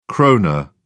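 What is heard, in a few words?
"krone", British English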